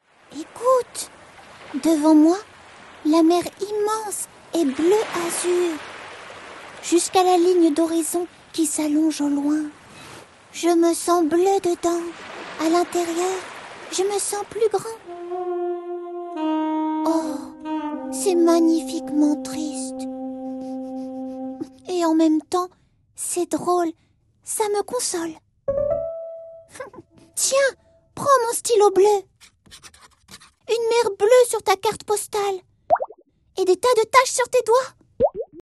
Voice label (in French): LIVRE AUDIO FR; voix-off; PitchMaico; Bleu